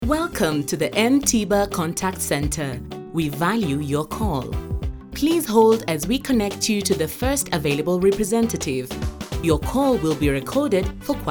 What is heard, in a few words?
IVR